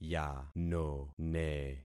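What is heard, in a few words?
"yanone", concatenated syllables; Affixing experiment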